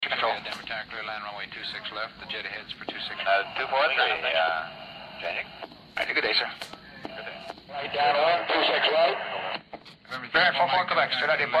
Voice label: atmo airport traffic control chatter

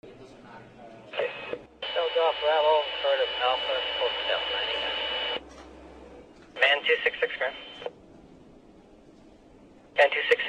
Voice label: atmo airport traffic control chatter

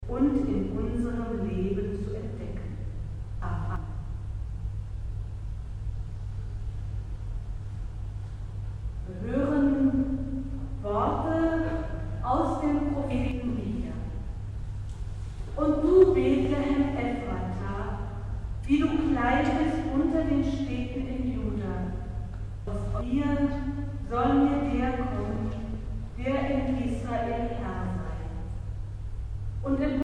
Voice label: religious german church voices

